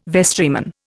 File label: "vestryman", Indian